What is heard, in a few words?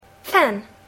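"fan", English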